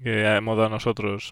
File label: Spanish; spontaneous speech